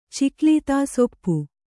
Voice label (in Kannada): "ಚಿಕ್ಲೀತಾಸೊಪ್ಪು", Kannada